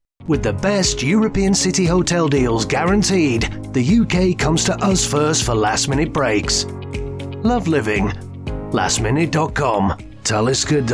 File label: Commercial Showreel